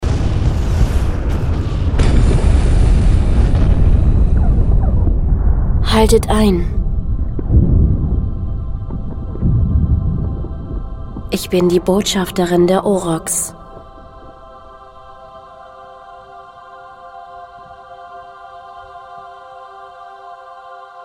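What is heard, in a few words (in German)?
Hörspiel; captain parkman; juna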